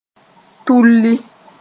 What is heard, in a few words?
"tulli", moore